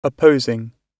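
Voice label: "opposing", British English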